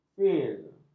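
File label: "fir", Malay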